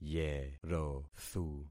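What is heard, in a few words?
"yerothu", concatenated syllables; Affixing experiment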